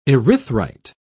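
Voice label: "erythrite", British English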